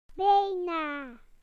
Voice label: "almost", BE; PictureDictionary